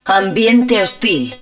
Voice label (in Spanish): Hostile Environment, Spanish